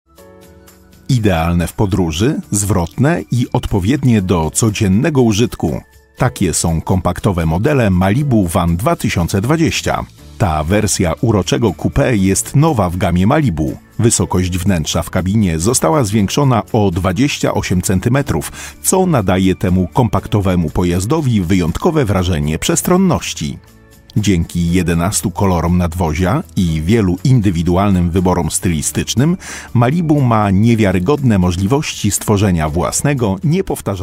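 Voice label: Polish, male, narration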